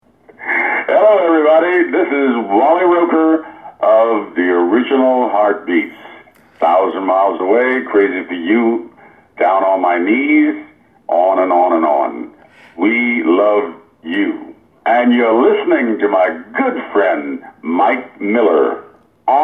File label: promo